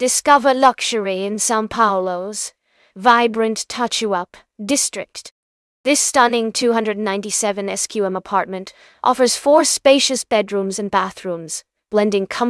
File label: narration audio